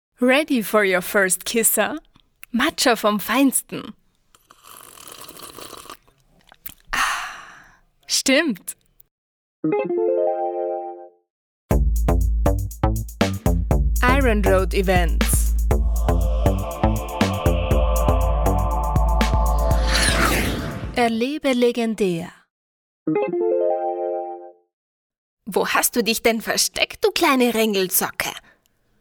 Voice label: Sprecher Showreel

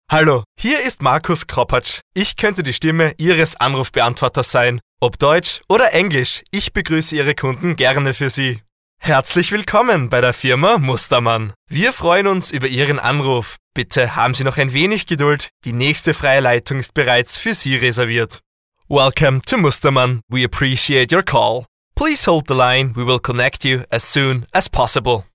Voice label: Sprecher Telefonansagen

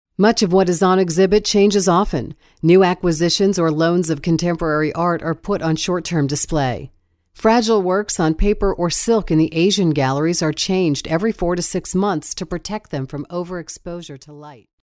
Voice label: Sprecher Native speaker

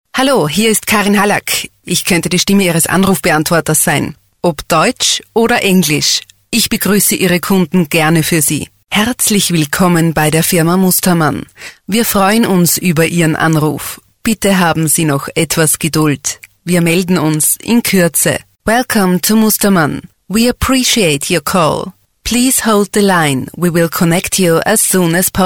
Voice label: Sprecher Telefonansagen

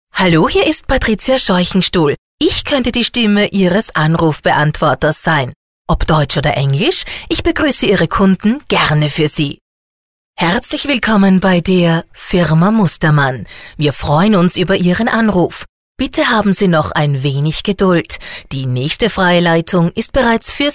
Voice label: Telefonansagen

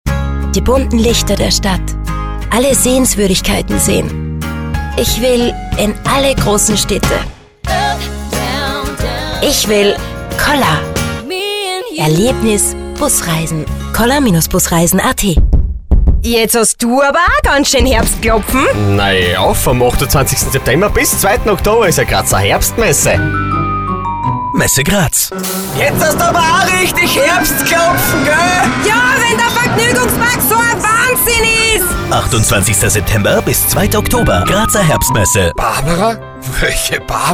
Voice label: Sprecher Showreel

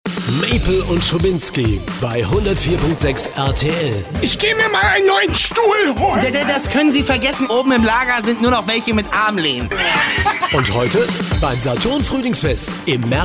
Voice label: Sprecher Showreel

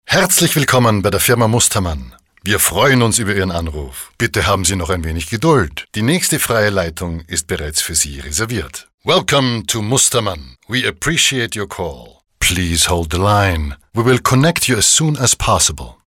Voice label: Telefonansagen

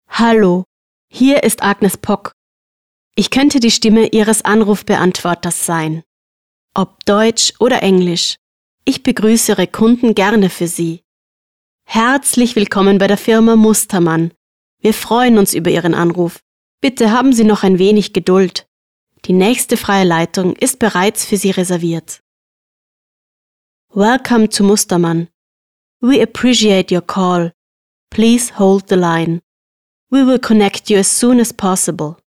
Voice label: Telefonansagen

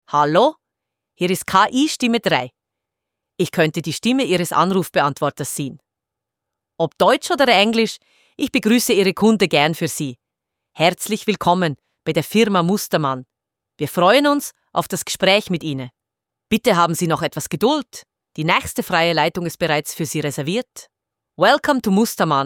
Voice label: KI-Stimme; ki telefonansagen auf schweizerdeutsch

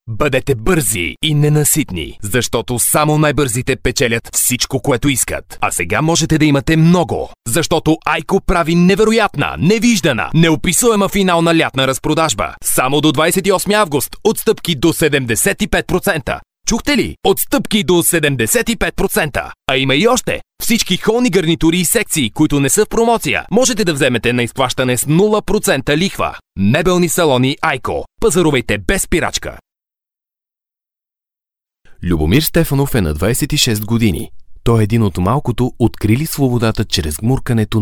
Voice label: Sprecher Native speaker

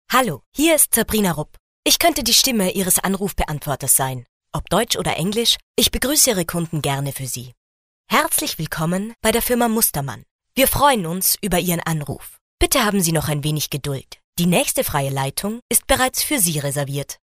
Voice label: Sprecher Telefonansage

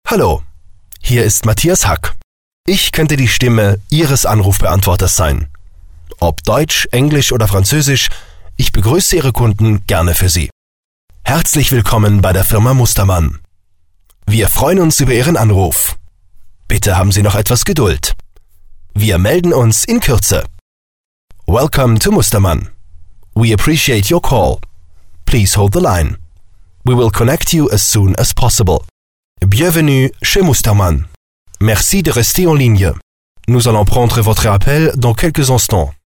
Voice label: Sprecher Telefonansagen

